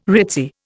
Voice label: "ritzy", Indian

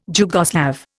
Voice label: "Jugoslav", Indian

